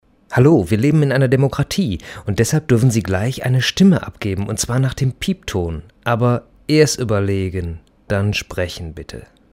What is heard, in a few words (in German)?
anrufbeantworter; dieter nuhr